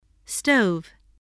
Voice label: "stove", spelling

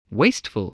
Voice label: "wasteful", spelling

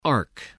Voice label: "arc", English

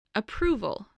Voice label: "approval", English

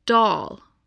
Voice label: "doll", spelling

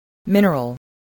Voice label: "mineral", voice, spelling

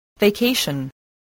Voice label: "vacation", English

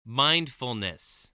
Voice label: "mindfulness", voice, spelling

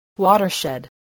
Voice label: "watershed", spelling

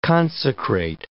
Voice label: "consecrate", English

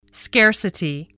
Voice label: "scarcity", english spelling

